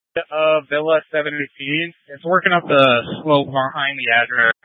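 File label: Incident Tactical 1, Scanner audio recording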